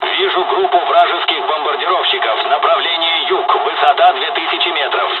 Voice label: dialog, dialogs russian; War Thunder

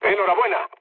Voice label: player killed enemy, mood low, speech

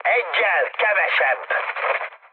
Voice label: player killed enemy, mood high, speech hun; War Thunder

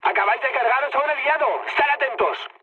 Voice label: player killed ally, mood high, speech; War Thunder

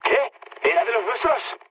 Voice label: player killed ally, mood low, speech; War Thunder

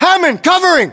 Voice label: response covering, battlechatter us

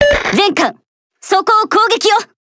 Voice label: Speech Quick Commands Enemy Building; Takao; World Of Warships; Arpeggio

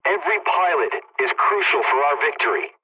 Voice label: pilot mission start, english; War Thunder

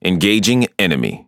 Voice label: Speech Pilots Bomber Engage, EN; World Of Warships

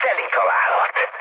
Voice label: bomb success, mood med, speech hun; War Thunder

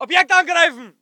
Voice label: voice ger infantry

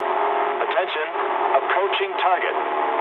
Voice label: eng near waypoint mood low, speech eng

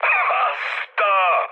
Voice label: trick, speech hun; War Thunder

